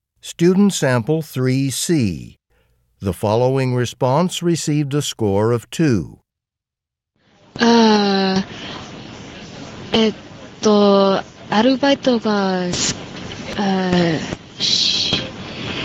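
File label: japanese conversation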